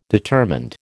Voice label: "determined", American

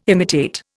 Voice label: "imitate", Indian

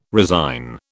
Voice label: "resign", British

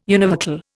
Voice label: "univocal", Indian

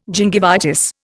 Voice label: "gingivitis", Indian

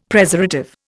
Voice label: "Preservative", Indian; Dictionary

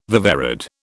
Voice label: "viverrid", British

